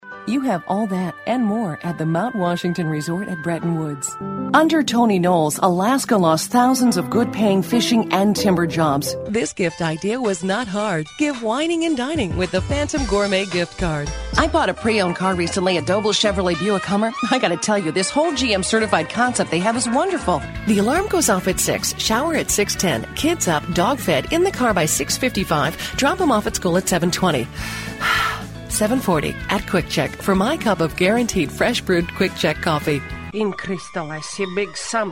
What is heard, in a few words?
happy, female voices for radio commercials